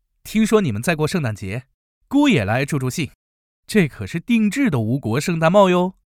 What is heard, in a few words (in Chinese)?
爽朗英气 男青年